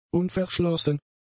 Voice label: "unverschlossen", German; adjectives